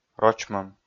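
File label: "рочмӧм", Komi, male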